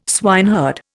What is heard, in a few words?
"swineherd", Indian